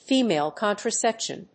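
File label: "Female Contraception", English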